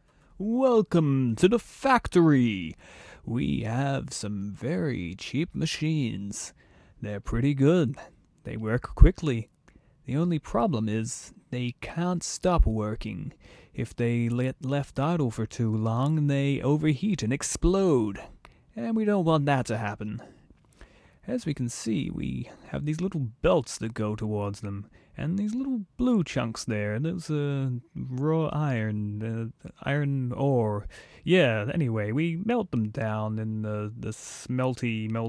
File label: narration